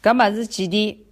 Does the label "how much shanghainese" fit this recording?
Yes